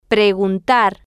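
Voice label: "preguntar", Spanish, female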